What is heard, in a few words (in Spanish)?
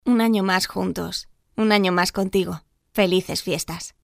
jingle navidad spanish christmas voiceover locutora